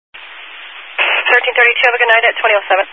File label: Highway Patrol, scanner; DPS